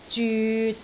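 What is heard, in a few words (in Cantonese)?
"zyut3", Cantonese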